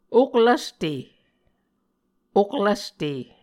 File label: "oklvste", Muscogee